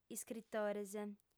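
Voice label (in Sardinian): "iscritores", Sardinian, female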